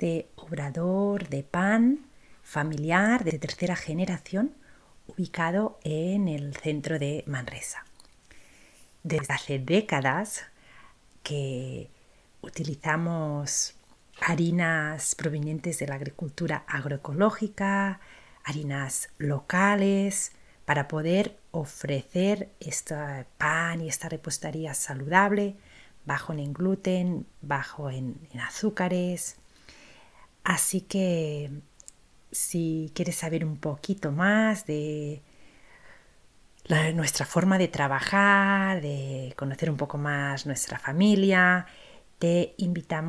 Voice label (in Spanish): audio bienvenida castellano; forn jorba